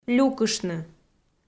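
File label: "люкыштны" Komi, female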